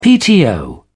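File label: "pto", British English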